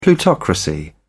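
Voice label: "plutocracy", British English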